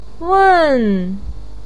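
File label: "weng4", Mandarin Chinese, pinyin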